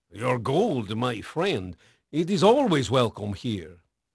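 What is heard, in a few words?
Portuguese male, voice; Pirates Of The Caribbean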